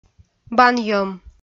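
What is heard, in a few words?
"банйӧм", Komi-Zyrian, female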